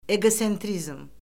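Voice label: "eqosentrizm", Azerbaijani; Orfoqrafiya Lüğəti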